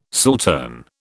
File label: "saltern", British